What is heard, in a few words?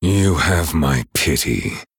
Male Night Elf Sorry